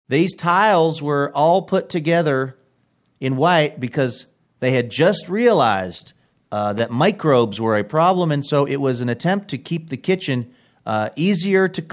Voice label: speaking like southern lady reading plaques out loud